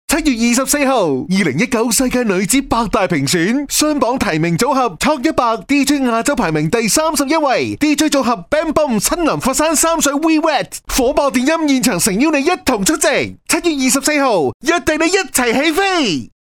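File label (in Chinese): 男【广告】活泼; 粤男; V-WET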